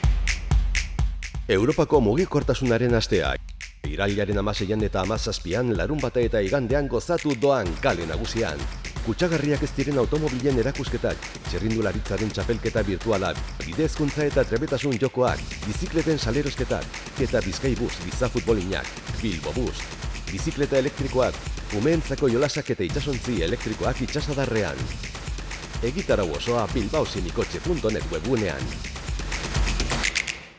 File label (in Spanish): Cuña Euskera; SEM 2023